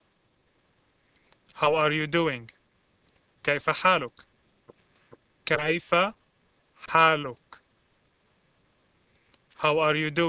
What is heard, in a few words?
Arabic Greet; Kaif Halukカイファ ハールカ?; (masculine); アラビア語; いかがですか?